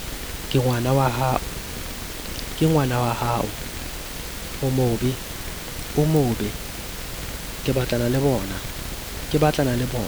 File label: Sesotho tone